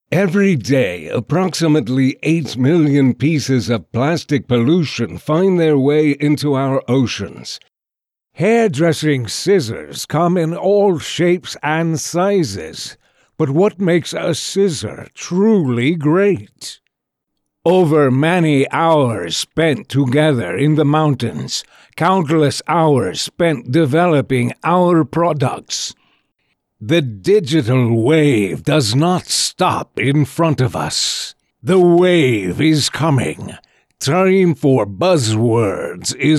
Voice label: NEUTRAL ENGLISH